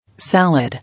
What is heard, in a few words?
"Salad", English